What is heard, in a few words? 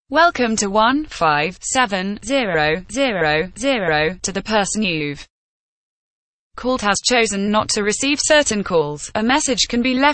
female, UK